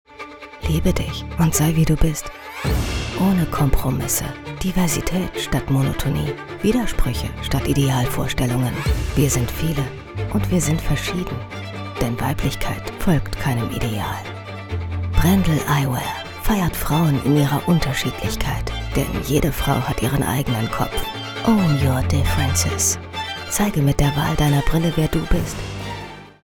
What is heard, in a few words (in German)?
Imagefilm Eyewear; BRENDEL Eyewear